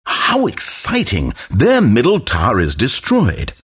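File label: announcer tower fall enemy mid; stanleyparable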